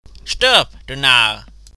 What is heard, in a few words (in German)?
Narr